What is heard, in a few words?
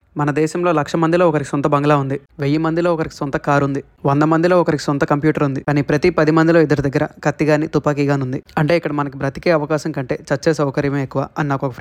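E-learning Telugu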